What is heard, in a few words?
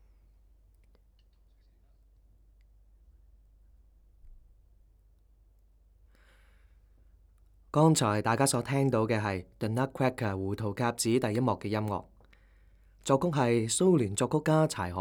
Studio VO recording reference